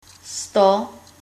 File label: hundred, czech